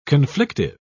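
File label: "conflictive", British English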